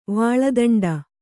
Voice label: "ವಾಳದಂಡ", kannada

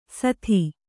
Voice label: "ಸಾದಿ", Kannada

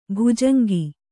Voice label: "ಬೊಜಂಗ", Kannada

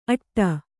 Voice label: "ಅಟ್ಟಿ", Kannada

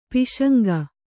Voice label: "ಪಾಸಂಗ", Kannada

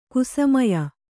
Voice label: "ಕುಸಮಯ", Kannada; kusamaya; noun

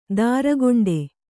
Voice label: "ತರಿಗೆಂಡ", Kannada

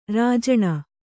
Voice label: "ರಾಜಾಣ", Kannada

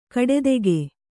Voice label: "ಕಡೆದೆಗೆ", Kannada

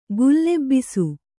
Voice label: "ಗುಲ್ಲೆಬ್ಬಿಸು", Kannada

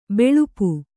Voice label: "ಬಲ್ಪು", Kannada

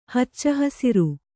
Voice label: "ಹಚ್ಚಹಸುರು", Kannada

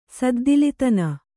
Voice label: "ಸದ್ದಿಲಿತನ", Kannada

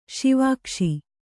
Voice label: "ಸಾವಗಿಸು", kannada

